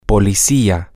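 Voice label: police person, Spanish, male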